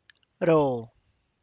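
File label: tlacochahuaya, Zapotec; eats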